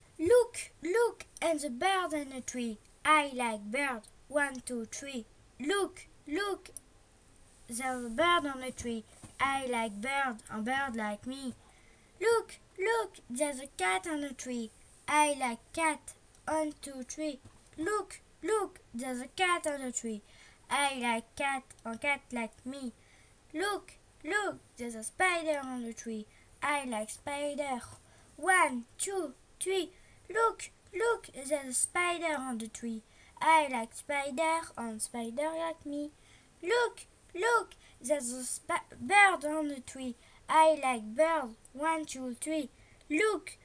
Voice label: Jazz chant